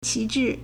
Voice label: "qi2 zhi4", Chinese

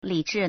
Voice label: "li3zhi4", Chinese

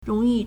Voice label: "rong2 yu4", Chinese

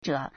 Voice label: "zhe5", Chinese

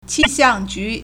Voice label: "qi4 xiang4 ju2", Chinese

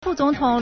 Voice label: "fu4 zong3 tong3", Chinese

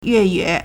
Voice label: "yue4ye3", Chinese

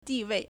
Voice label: "di4 wei4", Chinese; 地位; dìwèi; position; status; place

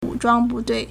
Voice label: "wu3zhuang1bu4dui4", Chinese

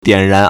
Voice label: "dian3 ran2", Chinese

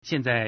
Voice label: "xian4zai4", Chinese

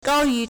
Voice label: "gao1 yu2", Chinese

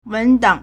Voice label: "wen2dang4", Chinese

